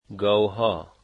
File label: cows, Dari